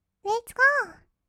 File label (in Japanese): "レッツゴー", kawaii voice; 音ゲー